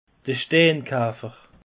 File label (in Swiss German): "steinkafer", alsace; peche